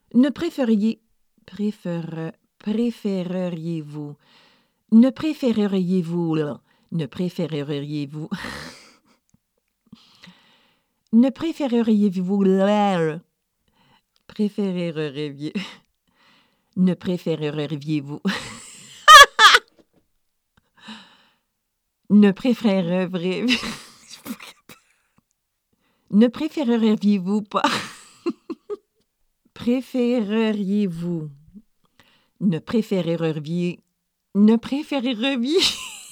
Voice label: french blooper